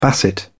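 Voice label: "basset hound", British English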